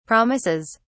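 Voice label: "promises", British English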